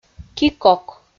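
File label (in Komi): "ки-кок", Komi, female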